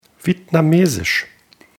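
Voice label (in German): "vietnamesisch", German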